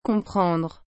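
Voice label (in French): "comprendre", French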